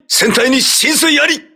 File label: hull breach, voice message, crew ships japanese